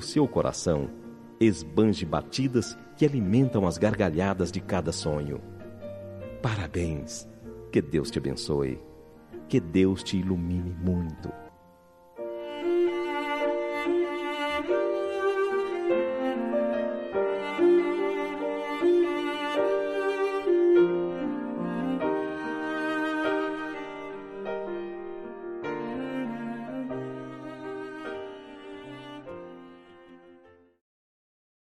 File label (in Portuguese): Aniversário Madrinha – Voz Masculina